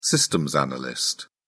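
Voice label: "systems analyst", British English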